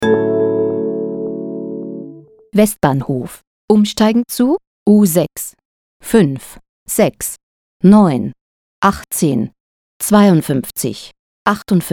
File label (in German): stationsansage